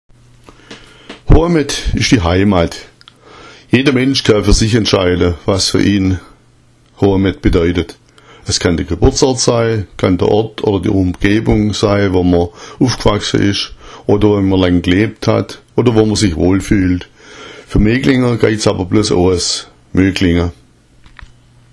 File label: hoamet, schwaebisch